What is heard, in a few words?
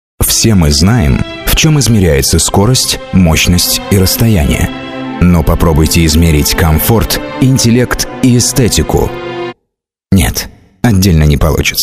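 Russian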